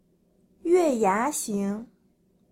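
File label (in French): "croissant", French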